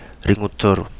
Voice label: "Ringutoru", Kapingamarangi